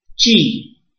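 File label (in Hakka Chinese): "zhi1", 客語 海陸腔; 脂; 紙; 志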